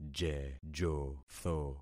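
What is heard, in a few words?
"jejotho", concatenated syllables; Affixing experiment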